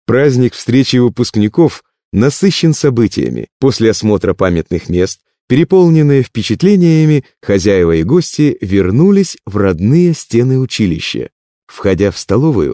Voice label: russian male